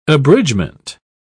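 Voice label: "abridgement", English, British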